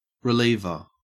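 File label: "reliever", Australian English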